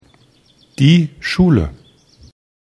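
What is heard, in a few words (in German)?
"schule", German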